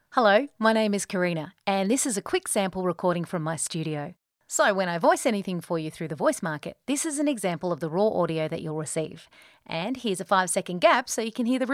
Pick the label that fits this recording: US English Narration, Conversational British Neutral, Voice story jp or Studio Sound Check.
Studio Sound Check